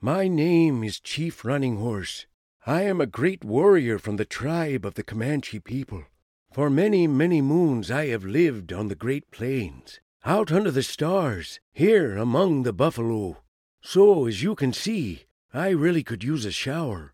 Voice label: Native American; Voice, Acting & Singing samples